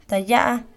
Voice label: "Caldo", Mixteco